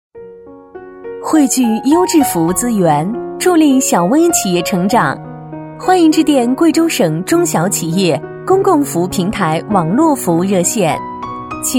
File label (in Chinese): 彩铃 网络服务热, 女